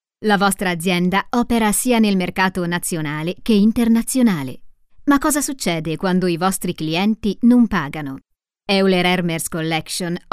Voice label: Italian female, corporate